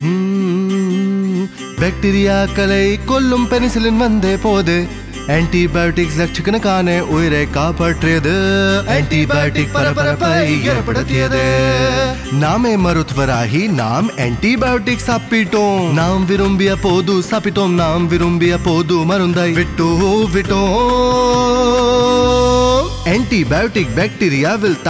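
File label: Antibiotic Jingle Tamil; Anitbiotic Jingal; jingles in 19 languages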